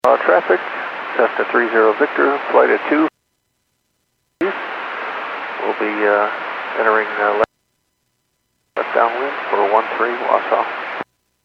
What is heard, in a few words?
Airports 122.7, Radio; KSTE